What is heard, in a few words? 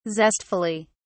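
"zestfully", British English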